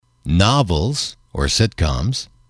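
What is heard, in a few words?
"novels sitcoms", English, male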